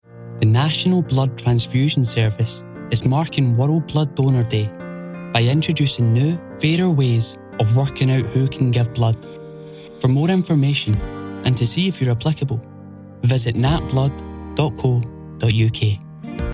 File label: National Blood Transfusion Service Commercial